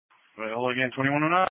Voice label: EMS Dispatch

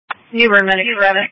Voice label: EMS Dispatch

